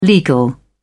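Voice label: "legal", British English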